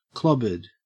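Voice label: "clobbered", Australian English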